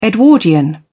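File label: "edwardian", British English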